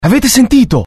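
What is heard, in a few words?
curious, Italian; mohaa; dialogue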